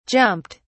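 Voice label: "jumped", British English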